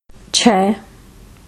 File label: "Six", hindi